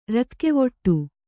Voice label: "ರಾಗವಿಡಿ", kannada